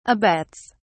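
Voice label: "abets", British English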